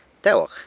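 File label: "Tewag", San Lucas Quiavini Zapotec; No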